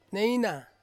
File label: "neina", Ansus; bones